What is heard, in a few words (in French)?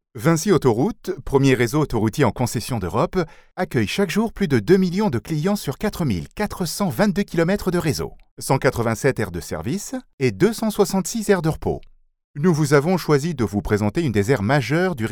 voix off, saint rambert